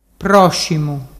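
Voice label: "proscimu", Ligurian dialect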